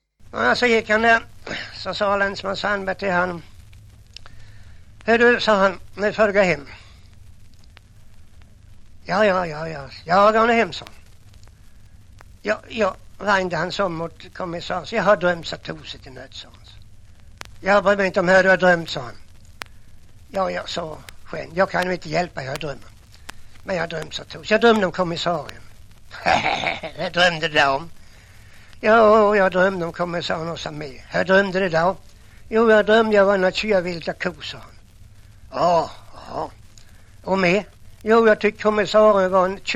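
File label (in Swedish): Jämshög Blekinge, dialekter; Folkminnen; Svenska sagor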